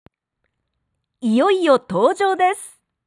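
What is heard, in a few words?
"iyoiyotoujoudesu", Japanese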